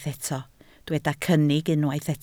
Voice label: "cynnig", Welsh